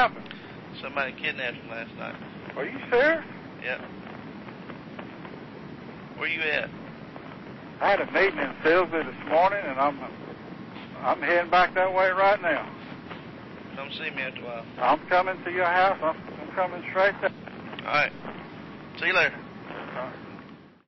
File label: Phone Call; ransom position of trust questioning everything